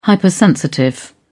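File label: "hypersensitive", British English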